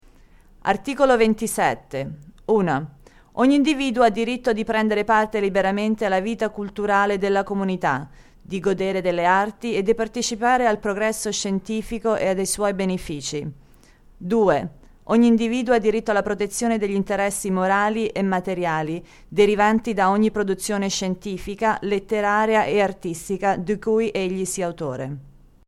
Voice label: Italian